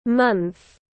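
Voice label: "Month", English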